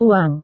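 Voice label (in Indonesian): "uang", Indonesian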